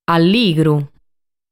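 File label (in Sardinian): "Alligru", Sardinian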